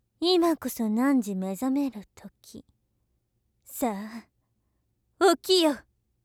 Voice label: 今こそ汝目覚める時間…さぁ、起きよ, 目覚ましボイス

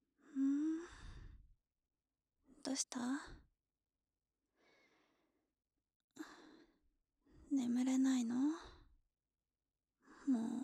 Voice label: おやすみボイス

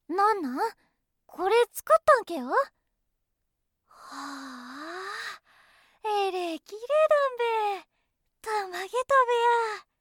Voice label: これ作ったんけや?, 上州

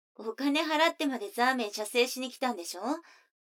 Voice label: 女の子 台詞

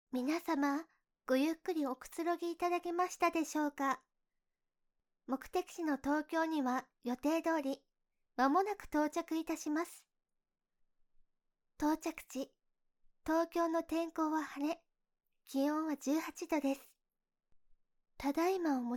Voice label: 着陸アナウンス

